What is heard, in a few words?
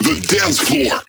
Vocal Phrase Male "Dancefloor"